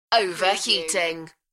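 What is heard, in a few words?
British female Over heating; beanstalk audio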